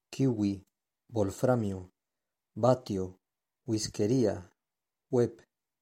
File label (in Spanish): sonido letra w